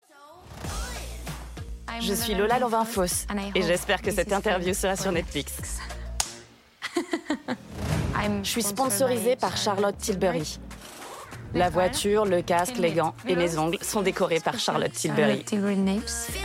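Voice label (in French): VOIX OFF - Pub, comédienne; INTERFLORA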